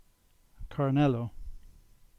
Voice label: Cornish; Carnellis